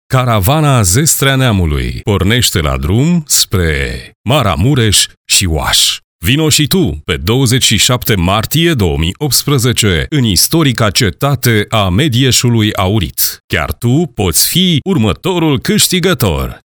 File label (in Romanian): voce promo caravana; DEMO VOICEOVER; caravana zestrea neamului